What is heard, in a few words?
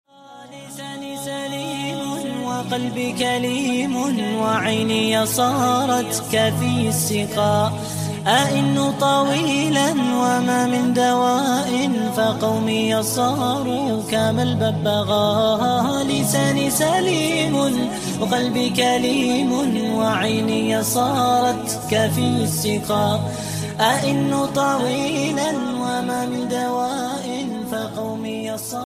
islamic arabic verses islamic ringtone